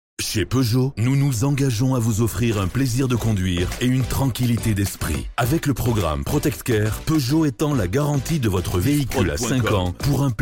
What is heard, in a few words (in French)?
Spot, publicite; Peugeot JPO 13 15 Juin 2024